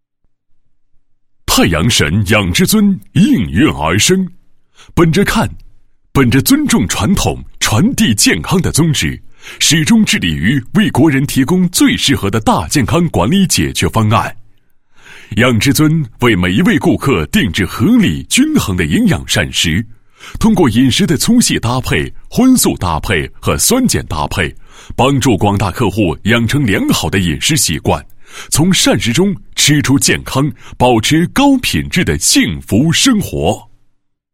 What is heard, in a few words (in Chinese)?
男 养生品牌宣传, 配音; 太阳神养之尊养生馆